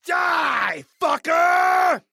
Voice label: male hate alert